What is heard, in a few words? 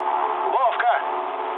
trick, speech ru